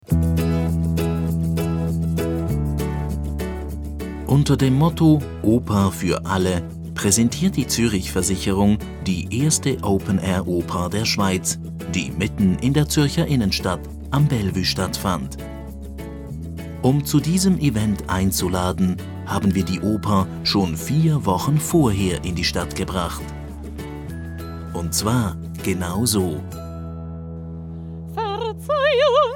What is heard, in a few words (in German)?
infofilm; Oper für Alle – Infofilm